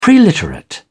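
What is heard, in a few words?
"preliterate", English, British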